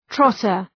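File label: "trotter", English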